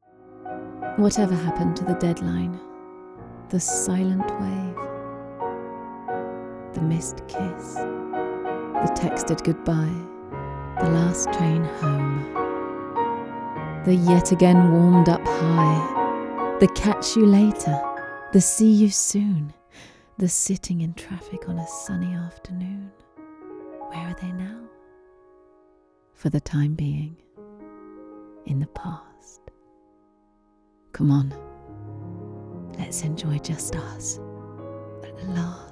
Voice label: VO ENG Yearning; Center Parcs